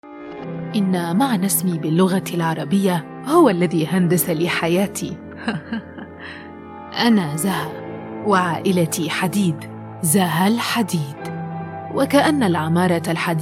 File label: Podcast content; Narration; Zaha Al Hadid